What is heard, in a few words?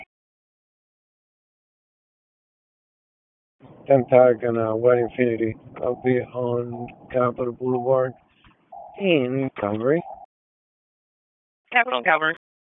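Police Northeast District Dispatch, p25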